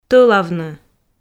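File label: "тӧлавны", Komi, female; audio dict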